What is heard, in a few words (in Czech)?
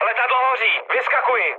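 Czech on fire, mood medium; War Thunder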